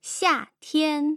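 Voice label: "xiatian", Mandarin Chinese, tone pairs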